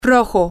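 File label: red, Spanish, female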